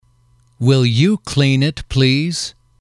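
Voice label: will you clean it please, male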